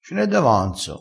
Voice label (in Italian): "d avanzo", crespinese